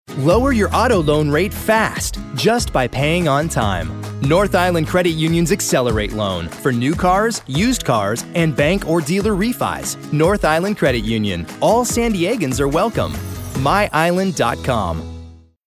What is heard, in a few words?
Friendly